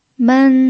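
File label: "men", pinyin, first tone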